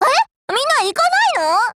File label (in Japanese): DEFENSE JP